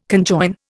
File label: "conjoin", Indian